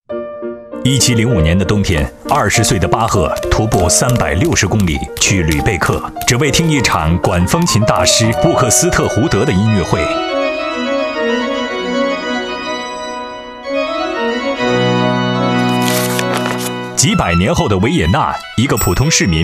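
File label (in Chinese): 电台音乐季宣传; 金鹰955电台滨江音乐季宣传2巴赫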